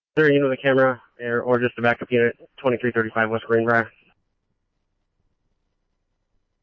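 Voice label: scanner, Phoenix Police Department; PPD-200 A; RWC; Thompson Peak High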